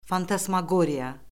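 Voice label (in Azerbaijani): "fantasmaqoriya", Azerbaijani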